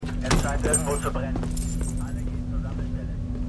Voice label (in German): Funkstimme; Constellation